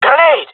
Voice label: throwing grenade, jungle male; player vo; Counter Strike Model Pack; CS:GO

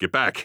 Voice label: radio bot fall back; vo; Counter Strike Model Pack; CS:GO; phoenix coop

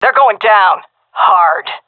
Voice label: round start, fem; vo; agents; Source Model Packs; Counter Strike Model Pack; CS2

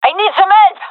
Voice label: request help, gendermerie fem; vo

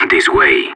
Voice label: radio follow me; Counter Strike; CS:GO; separatist

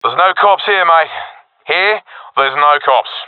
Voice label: sees area clear, professional epic; vo; Source Model Packs; Counter Strike Model Pack; CS2

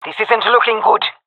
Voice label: scared, jungle fem epic; vo; agents; Source Model Packs; Counter Strike Model Pack; CS2

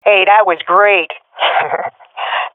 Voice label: compliment, swat fem; Counter Strike Model Pack; CS:GO

